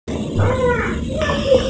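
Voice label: "sen1lin2", Mandarin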